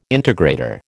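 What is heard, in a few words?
"integrator", American English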